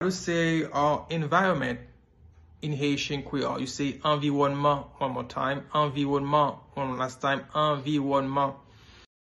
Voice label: How to say Environment in Haitian Creole Anviwonman Pronunciation